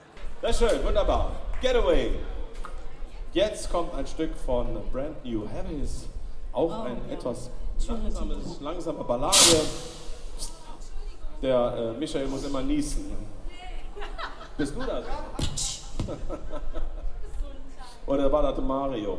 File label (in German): Ansage, Konzert; Boemundhof, Saarburg